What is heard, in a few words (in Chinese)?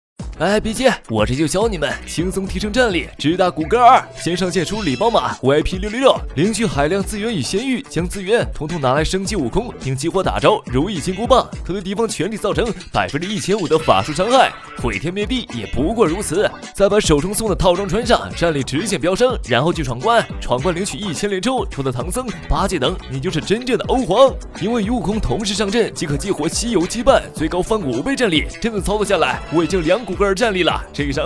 广告类型信息流游戏